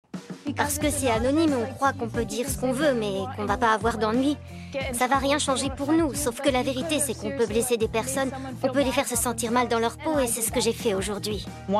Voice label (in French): Voice over voix ado